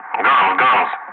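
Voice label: "guns", English; Ka-50; Wingman; Messages; Speech; DCS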